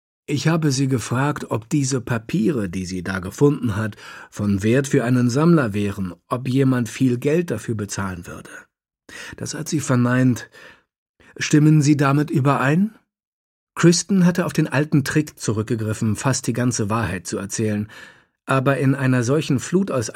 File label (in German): Hörbuch; Der Fall Alice im Wunderland